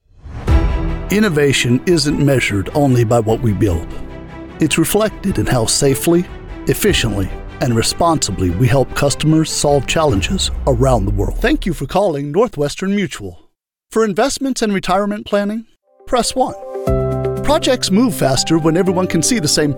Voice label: voiceover narration